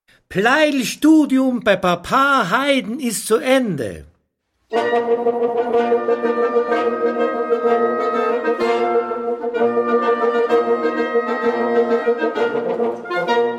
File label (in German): Hörbuch; pleyel